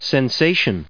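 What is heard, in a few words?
"sensation", English, us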